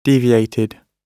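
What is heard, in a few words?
"deviated", British English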